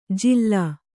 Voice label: "ಜಿಲ್ಲಾ", Kannada

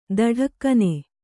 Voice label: "ಥಟಕ್ಕನೆ", Kannada

